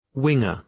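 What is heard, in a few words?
"winger", British English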